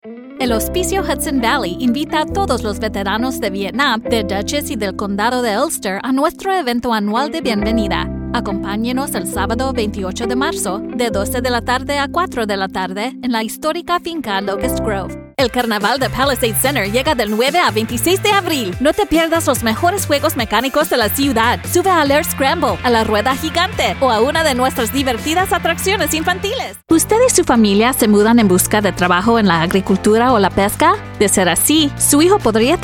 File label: Spanish